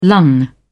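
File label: "lung", British English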